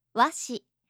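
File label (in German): "washi", japanische Mädchennamen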